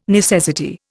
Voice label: "necessity", Indian